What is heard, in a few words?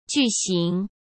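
"巨型", Mandarin Chinese; chinese-flashcards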